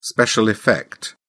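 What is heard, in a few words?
"special effect", British English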